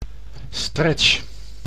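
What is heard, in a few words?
"stretch", Dutch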